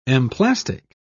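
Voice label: "emplastic", British English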